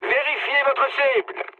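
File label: player friendly fire, mood high, French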